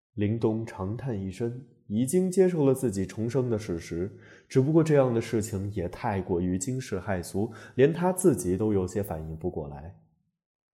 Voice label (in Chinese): 小说片段